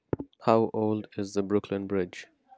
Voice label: speech test data audio 32KHz